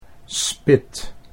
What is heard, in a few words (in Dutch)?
"spit", Dutch